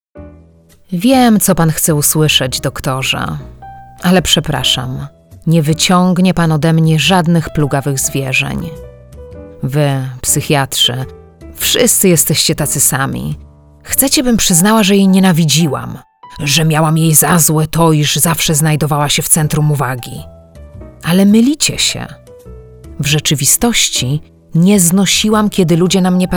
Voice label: Female Polish Voice Narration